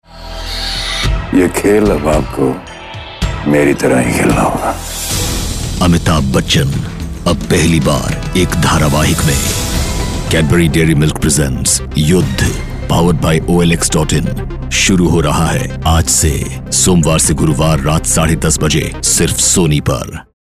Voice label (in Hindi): Radio Spot TV Hindi; Sony TV; Yudh; Sugar Mediaz